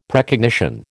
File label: "Precognition", American